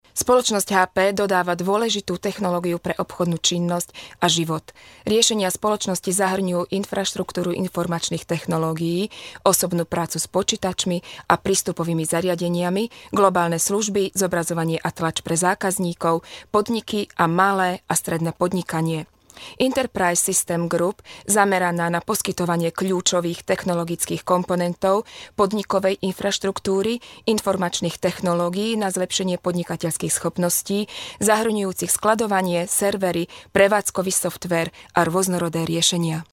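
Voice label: Slovak female voice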